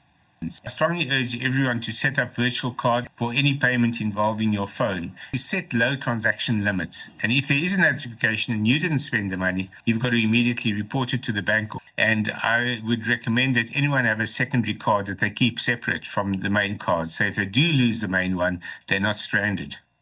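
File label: English; Scams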